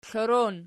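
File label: "klorom" nominative variant, Archi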